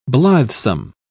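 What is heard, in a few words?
"blithesome", British English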